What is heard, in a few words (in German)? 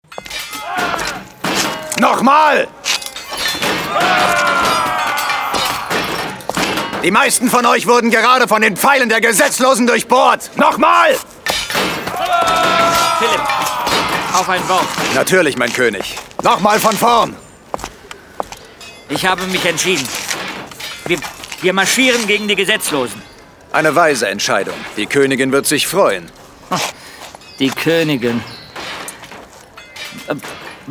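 hörspiel; kill shakespeare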